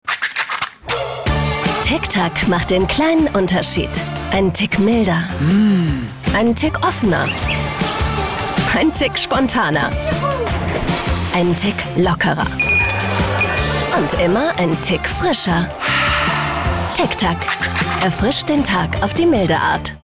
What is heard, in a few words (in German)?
Funkspot; LOFT VOICES